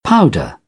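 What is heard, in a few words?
"powder", British English; AmericanBritish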